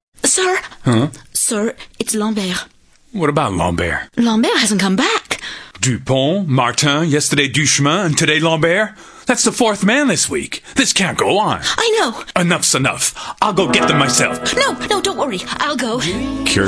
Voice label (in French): Voix Off Voice Over; Kyriad